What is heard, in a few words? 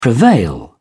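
"prevail", British English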